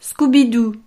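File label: "scoubidou", French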